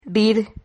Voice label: "rir", Silabas

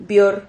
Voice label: "vior", Silabas

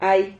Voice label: "hay", Silabas

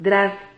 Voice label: "drad", Silabas

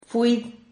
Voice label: "fuid", Silabas

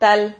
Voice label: "tal", Silabas

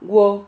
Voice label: "guo", Silabas

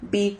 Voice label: "vid", Silabas

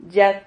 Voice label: "yad", Silabas

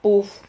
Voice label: "puf", Silabas

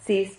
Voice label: "zis", Silabas

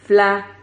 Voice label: "fla", Silabas

